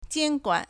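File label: "jiānguān", Chinese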